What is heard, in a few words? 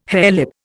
"harelip", Indian